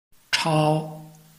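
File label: "chao", Chinese, tone 1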